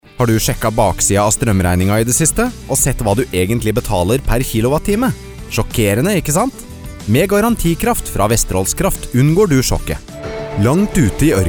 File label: norwegian commercial